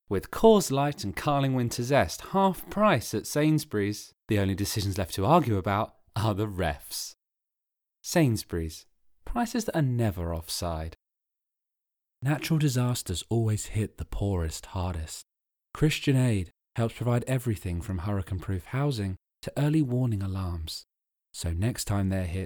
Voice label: Dry Studio Reads